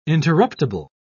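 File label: "interruptible", British English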